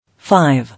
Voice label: "Five", English